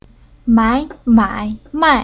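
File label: "Mai", Chinese syllable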